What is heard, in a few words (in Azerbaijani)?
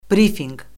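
"brifinq", Azerbaijani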